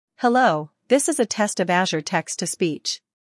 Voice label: azure speech